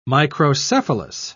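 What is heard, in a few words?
"microcephalous", British English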